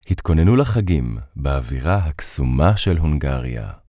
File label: hebrew commercial; Germany; Native Hebrew male pro voice over